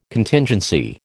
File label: "Contingency", American